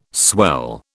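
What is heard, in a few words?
"swell", British English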